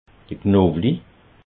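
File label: "knowli", Alsatian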